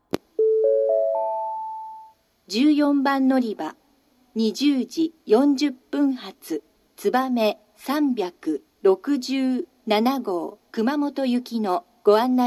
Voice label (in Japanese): 新鳥栖駅 つばめ367号 熊本行き 途中で途切れる例, 九州新幹線 SIRIUS型放送